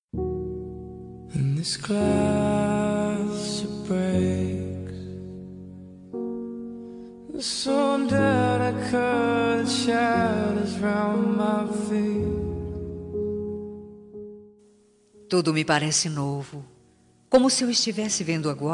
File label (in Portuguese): roma, Voz Feminina; Telemensagem Romântica